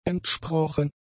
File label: "entsprochen", German; adjectives